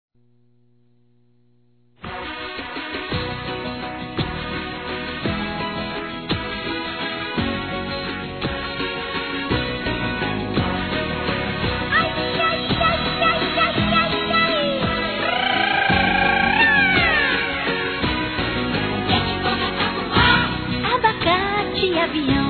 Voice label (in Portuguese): Aniversário Neto Feminino; Aniversário Infantil; Telemensagens